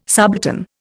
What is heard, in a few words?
"subaltern", Indian